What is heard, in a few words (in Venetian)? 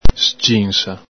"sginsa", Veneto